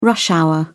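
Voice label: "rush hour", British English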